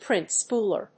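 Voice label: "print spooler", English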